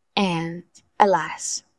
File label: VoiceActing es F